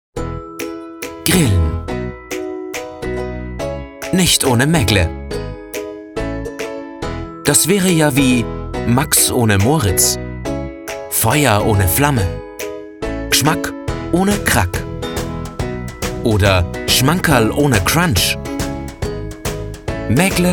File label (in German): Werbung